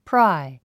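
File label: "pry", English word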